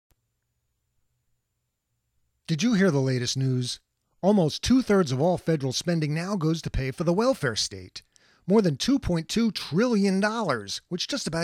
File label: Commercial Talk Radio